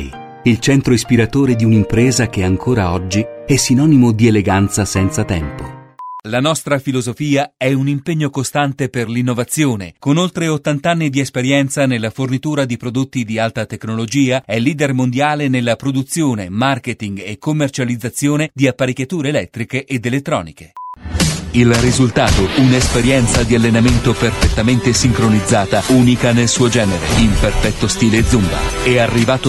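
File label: Italian Corporate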